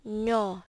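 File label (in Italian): "gno", Italian; klettres; tdeedu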